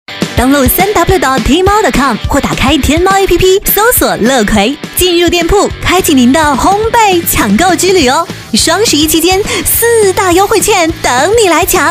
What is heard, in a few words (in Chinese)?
广告 互联网 烘焙 欢快, 女国; 天猫烘焙